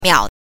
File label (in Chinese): "miao", pinyin